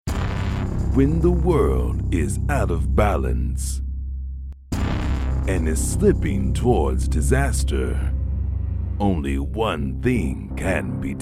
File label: Movie Trailer